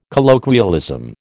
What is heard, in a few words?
"colloquialism", American